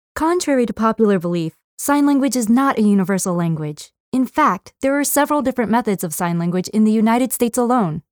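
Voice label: Narration Authoritative Professional Calm, Female; American English voice-over artist; ASL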